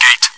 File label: chitchat; svencoop